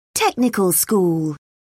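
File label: "technical school", British English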